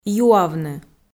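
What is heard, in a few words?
"юавны", Komi, female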